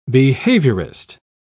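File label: "behaviourist", en, uk British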